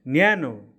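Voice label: "warm", English; opposite word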